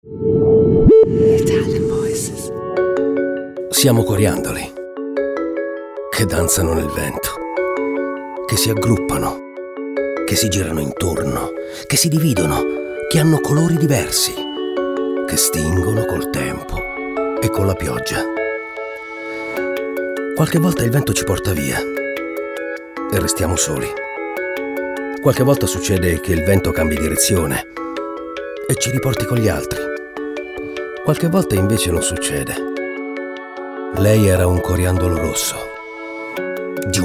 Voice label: ITALIAN VOICES; Naked Reel

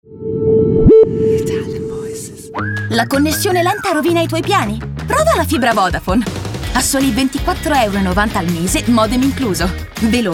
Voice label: ITALIAN VOICES Recent Commercials

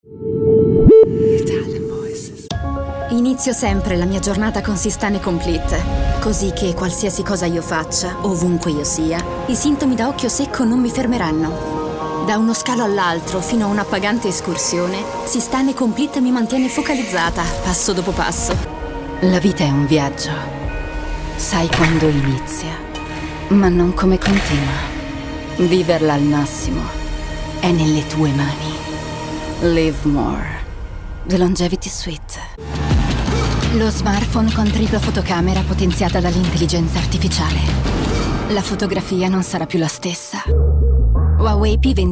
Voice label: ITALIAN VOICES Commercial

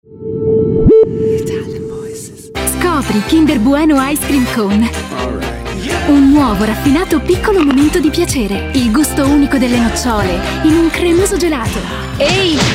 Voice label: Friendly Fresh, ITALIANVOICES

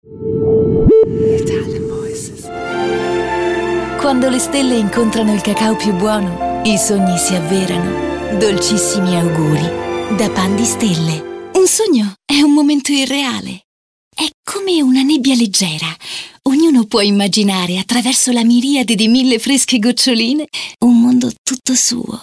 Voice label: Italian voices, Smooth Sweet

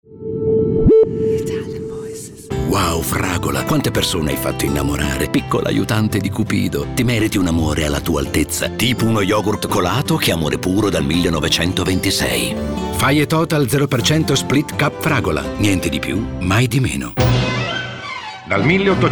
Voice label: ITALIAN VOICES Smooth Deep

